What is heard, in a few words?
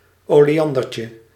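"oleandertje", Dutch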